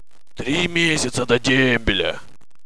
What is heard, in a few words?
monologue, soldier; svencoop; paranoia